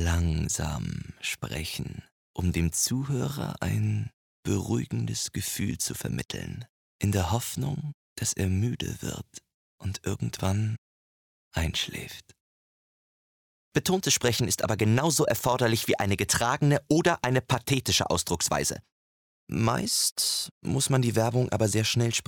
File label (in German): erzählung vielerlei arten